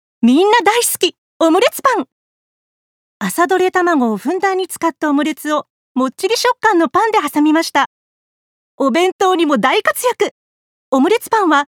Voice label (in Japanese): パンのCM